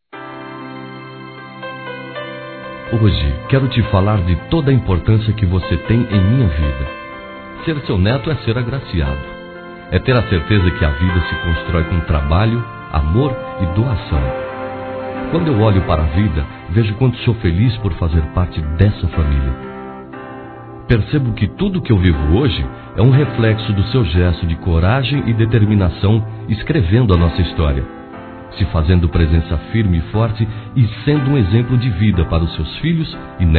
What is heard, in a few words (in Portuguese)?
Aniversário Avô (ó) Masculino; Aniversários; Aniv Familiar